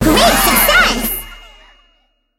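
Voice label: success, English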